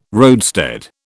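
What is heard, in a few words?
"roadstead", British English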